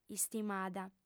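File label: "istimada", Sardinian, female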